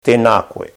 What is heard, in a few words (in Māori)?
"tenakoe", tereo